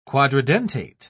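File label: "quadridentate", English, British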